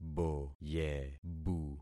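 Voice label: "boyebu", concatenated syllables; Affixing experiment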